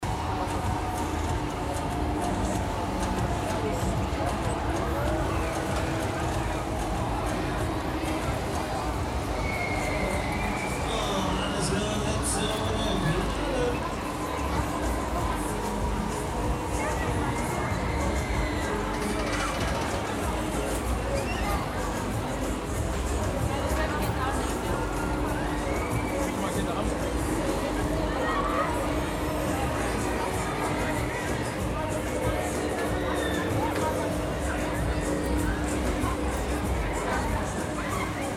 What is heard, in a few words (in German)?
Rummel Menschen Ansage Weiter weg